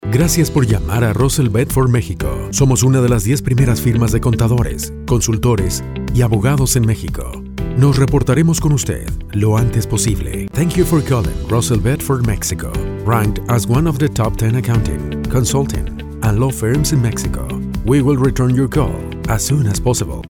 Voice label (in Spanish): Holding Telefonico